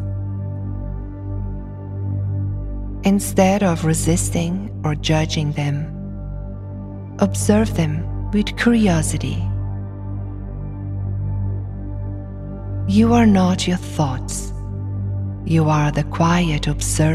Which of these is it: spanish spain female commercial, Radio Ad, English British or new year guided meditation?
new year guided meditation